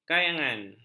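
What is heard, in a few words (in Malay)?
"kayangan", Malay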